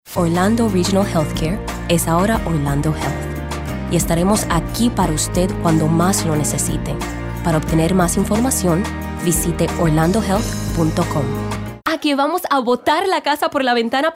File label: Spanish Showcase Commercial